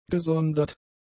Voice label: "gesondert", German; adjectives

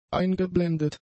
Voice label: "eingeblendet", German; adjectives

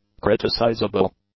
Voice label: "criticizable", English; adjectives

